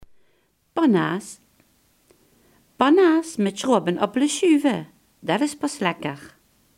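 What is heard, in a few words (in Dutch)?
"balkenbrij" Limburgish dialect word; balkenbrij, pannaas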